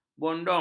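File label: "bondong", Malay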